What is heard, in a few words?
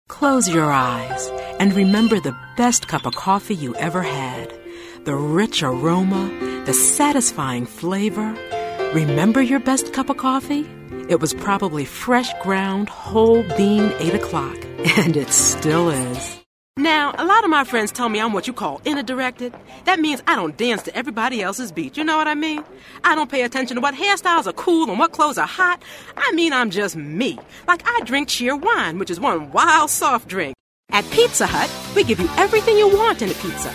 Commercial Air Check Mono; dj demos